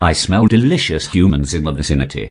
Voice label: posh headcrab hello; garrysmod